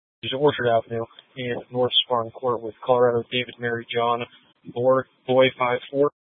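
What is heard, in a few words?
Incident Tac 3, Scanner audio recording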